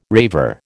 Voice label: "raver", American